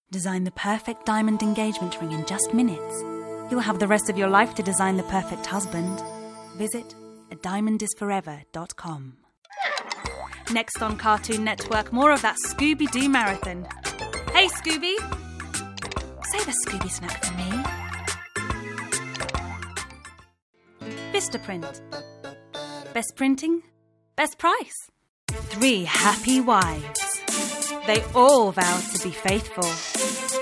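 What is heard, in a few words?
Romanian English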